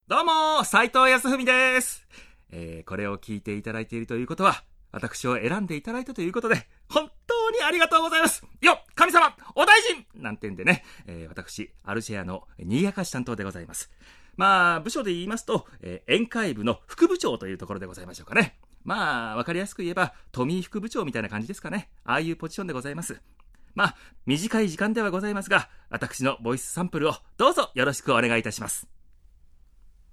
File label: free talk